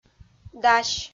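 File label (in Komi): "дась", Komi, female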